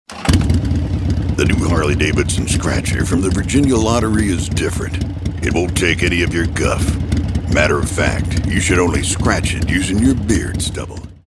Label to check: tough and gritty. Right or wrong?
Right